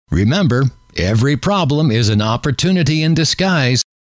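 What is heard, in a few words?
remember Every Problem Is An, male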